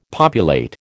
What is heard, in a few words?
"populate", American